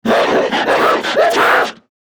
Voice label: Attack Grineer Manic; Battle Barks

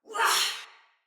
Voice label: Attack, Female; Sinecure Soldier Female B

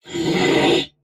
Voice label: Threatened Crewmen; Warframe; Corpus Crewmen; Crewman E; Dialog Barks Battle

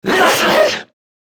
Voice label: Struggle, Battle Barks; Warframe; Grineer Manic

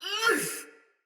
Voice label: Battle Female; Sinecure Soldier Mace Agent; Sinecure Melee

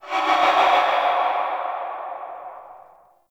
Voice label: Army Cast Vocal Layer; Warframe; Hoplite

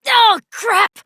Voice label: Fail Interjections, Adult, en

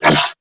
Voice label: Pain, Grineer Male; New War; Narmer

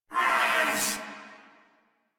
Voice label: Struggle Crewmen, Battle; Warframe; Corpus Crewmen; Crewman C

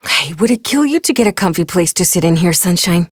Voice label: Ambient Barks, en; Warframe; Mall Romance; Lettie

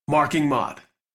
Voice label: Operator Voice B; Warframe; Operator Mission; Mod Marked; en

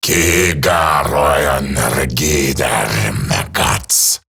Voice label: Patrol Eximus Grineer Male; Battle Barks

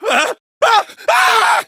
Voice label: Villagers Ostron Male Older en

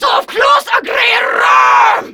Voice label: Attack, Female

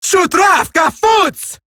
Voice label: Cover Me Eximus Grineer Female; Warframe; Battle Barks

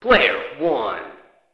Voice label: Arcade Voices

